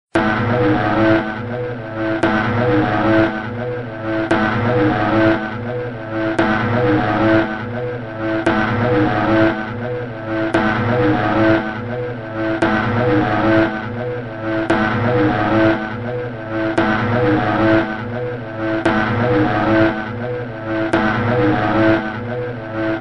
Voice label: Sleeptalk